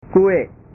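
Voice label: "kue", Indonesian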